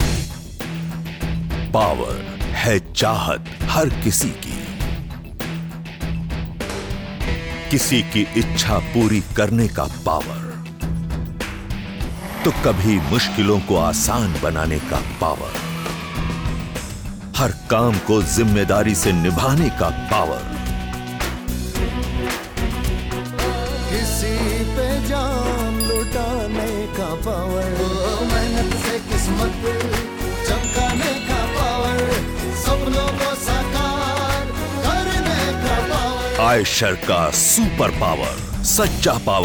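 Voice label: Hindi, Commercial; GoLocalise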